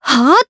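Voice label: Vox Casting, Japanese; kingsraid; voices heroes jp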